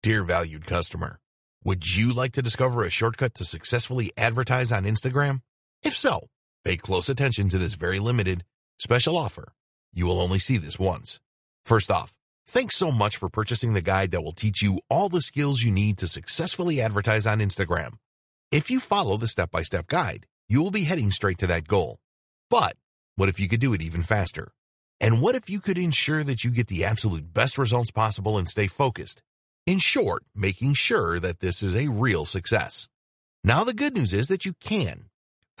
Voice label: Upsell Sales Video Voice Over; instagram ads success video upgrade